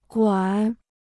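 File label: "管", Chinese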